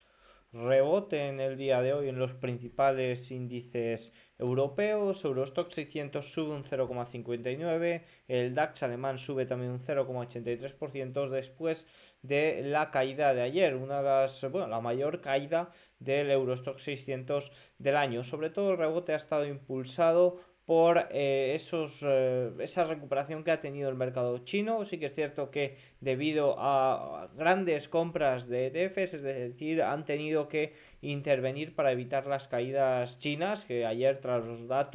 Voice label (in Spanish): radio